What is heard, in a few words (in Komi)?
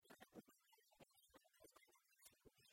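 "тӧрыт ми тӧдмалім экзамен кывкӧртӧдъяс йылысь", Komi, female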